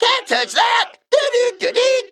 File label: Male Mech Emote ERROR TARGET